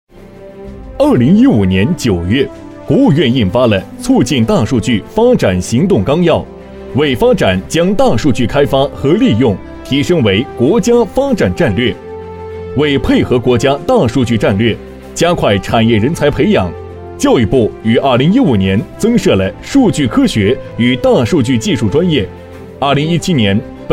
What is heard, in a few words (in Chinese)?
男 教育部新增专业（正式）